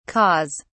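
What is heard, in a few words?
"caws", British English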